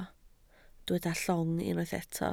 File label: "llong", Welsh